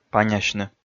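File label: "панясьны", Komi, male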